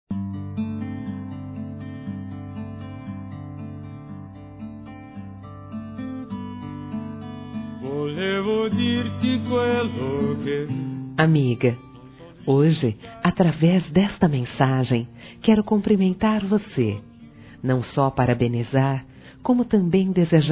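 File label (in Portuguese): Telemensagens, Feminino; CUMPRI.AMIGA PELO CASAMENTO; Amizade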